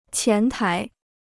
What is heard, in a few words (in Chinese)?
"前台", Chinese